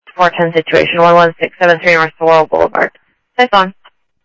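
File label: Sheriff East County, scanner audio; MCSO - EAST; ThompsonPeakScot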